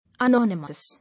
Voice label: "Anonymous", English

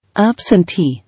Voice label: "Absentee", English

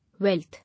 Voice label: "Wealth", English

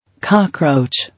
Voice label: "Cockroach", English

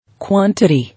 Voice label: "Quantity", English

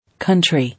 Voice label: "Country", English

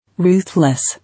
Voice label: "Ruthless", English

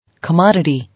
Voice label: "Commodity", English

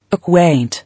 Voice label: "Acquaint", English

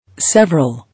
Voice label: "Several", English

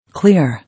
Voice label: "Clear", English

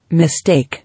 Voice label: "Mistake", English

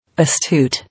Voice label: "Astute", English

